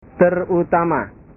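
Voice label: "terutama", Indonesian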